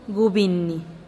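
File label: "gubinih", Teotitlán del Valle Zapotec; stingy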